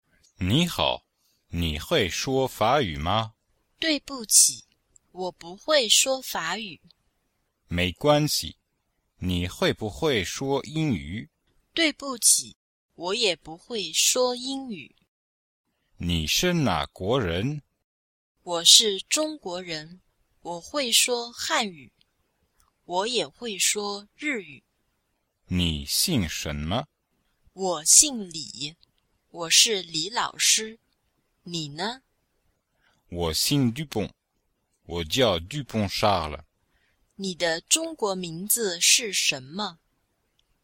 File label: texte, Chinese language course